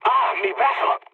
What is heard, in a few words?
damaged pilot mood low; War Thunder